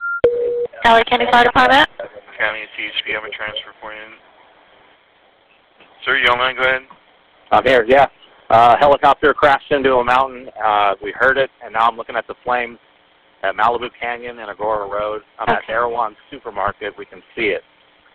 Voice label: 911 call, callers described foggy conditions